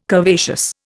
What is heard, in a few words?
"curvaceous", Indian